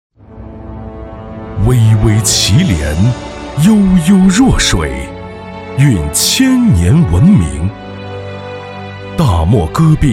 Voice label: 大气宣传

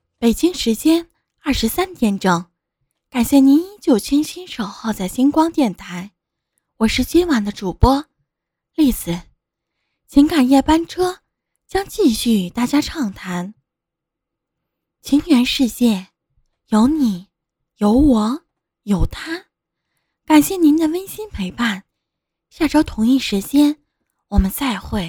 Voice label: 电台

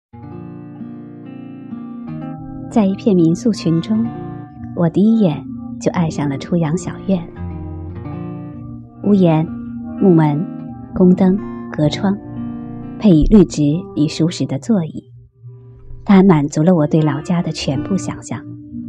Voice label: 民宿独白片段

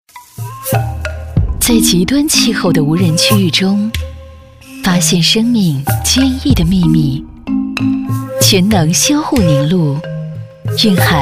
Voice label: 化妆品 广告 品质

